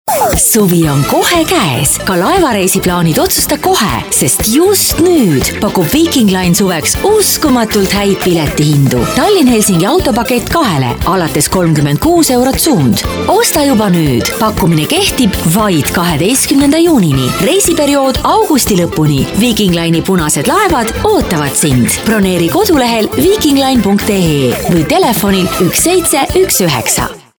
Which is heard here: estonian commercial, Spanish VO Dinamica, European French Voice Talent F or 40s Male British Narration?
estonian commercial